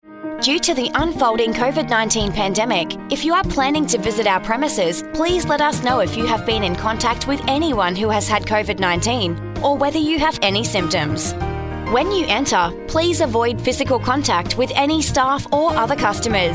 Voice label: Covid On Hold